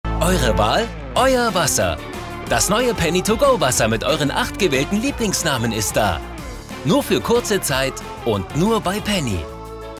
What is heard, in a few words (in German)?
Werbung